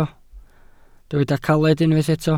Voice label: "caled", Welsh

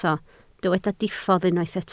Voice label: "diffodd", Welsh

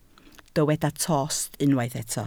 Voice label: "tost", Welsh

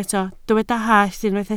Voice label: "hallt", Welsh

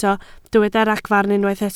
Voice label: "ragfarn", Welsh

